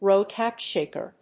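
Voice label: "ro-tap shaker", English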